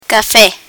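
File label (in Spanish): "cafe", Spanish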